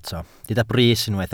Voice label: "brys", Welsh